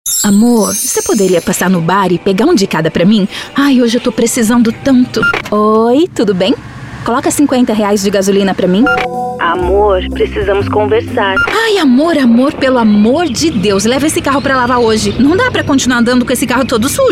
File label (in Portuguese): Diálogo Engraçado Descontraído Coloquial Alegre